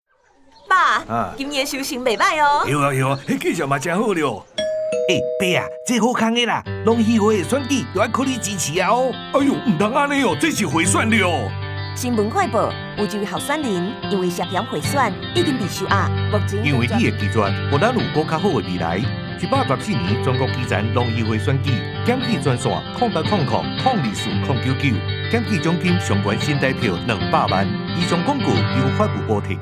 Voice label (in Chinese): 法務部反賄選宣導30秒廣播帶 台語; 114年度全國基層農漁會選舉; 114年農漁會選舉反賄選宣導－廣播